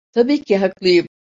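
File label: "ki", Turkish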